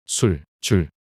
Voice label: 술줄 낱소리 남자

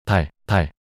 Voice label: 달달 낱소리 남자

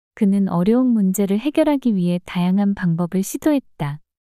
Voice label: 그는 어려운 문제를 해결하기 위해 다양한 방법을 시도했다 문장 여자

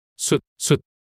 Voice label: 숱숱 낱소리 남자

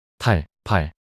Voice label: "탈팔" 낱소리 남자

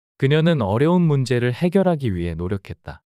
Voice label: 문장 남자; 그녀는 어려운 문제를 해결하기 위해 노력했다

